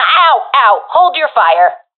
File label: taking fire friendly, professional fem; vo; Counter Strike Model Pack; CS:GO